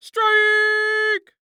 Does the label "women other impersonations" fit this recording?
No